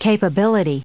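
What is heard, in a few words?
"capability", US English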